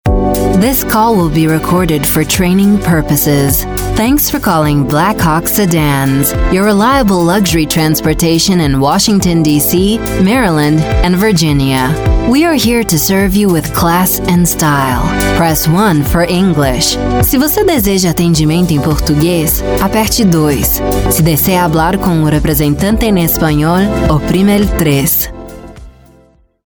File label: Brazilian Portuguese On Hold, Brazilian Portuguese Female; Black Hawk Sedans